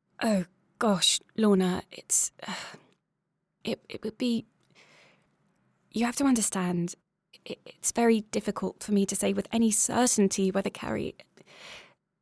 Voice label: Standard Southern British

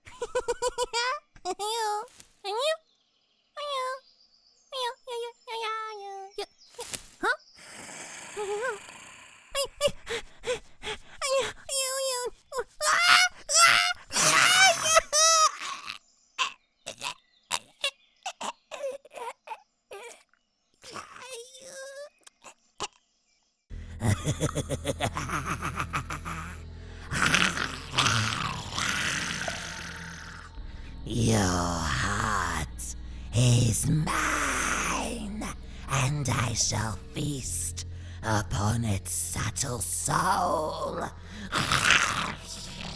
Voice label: Creature

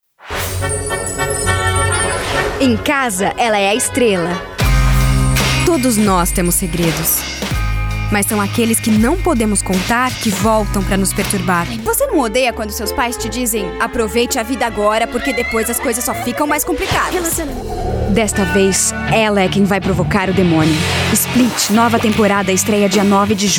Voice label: PROMOS, en-AU

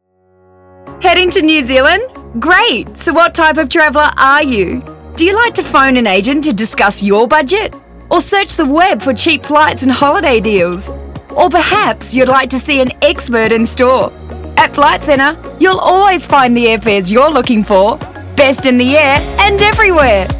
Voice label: AUSTRALIAN

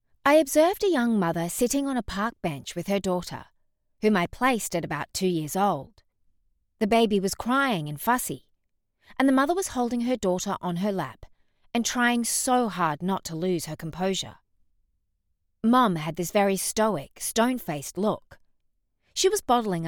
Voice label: Non Fiction Narration, en-US

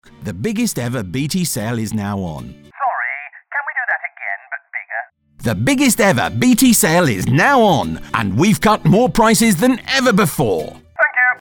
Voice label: Commercial Comic; BT Biggest Sale

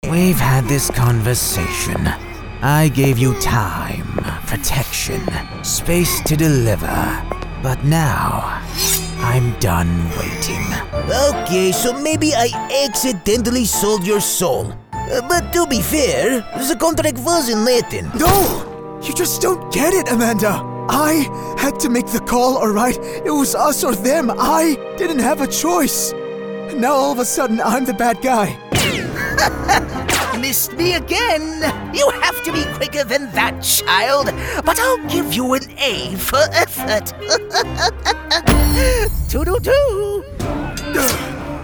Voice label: Character

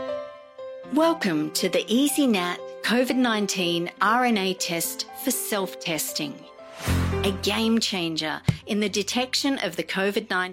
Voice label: explainer; Covid test explainer

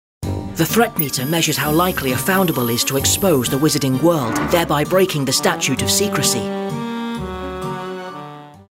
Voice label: game Narrator

